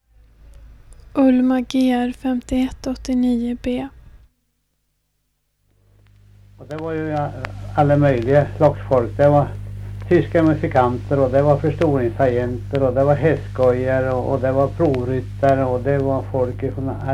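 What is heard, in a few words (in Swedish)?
Björnlunda Södermanland, dialekter; Folkminnen; Svenska sagor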